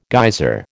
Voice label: "geyser", American